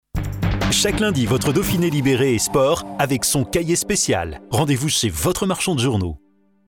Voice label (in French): PUB VOIX OFF